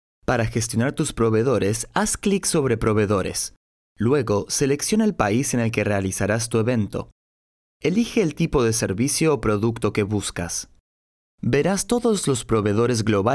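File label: Latin American Spanish male explainer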